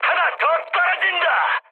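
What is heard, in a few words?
Korean player killed enemy mood high, speech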